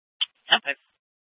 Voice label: Dispatch; Scottsdale

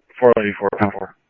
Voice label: Police, scanner; H-Scottsdale; ScottsdalePolice

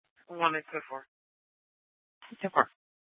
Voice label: PD Dispatch

